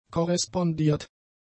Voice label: "korrespondiert", German; adjectives

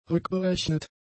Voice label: "rückberechnet", German; adjectives

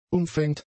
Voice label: "umfängt", German; adjectives

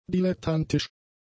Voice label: "dilettantisch", german; adjectives

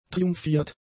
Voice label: "triumphiert", German; adjectives

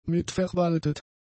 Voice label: "mitverwaltet", German; adjectives

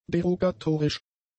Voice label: "derogatorisch", german; adjectives

